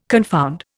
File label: "confound", Indian